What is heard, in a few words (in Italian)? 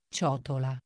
"ciotola", Italian